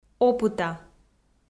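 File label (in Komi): "опыта", Komi, female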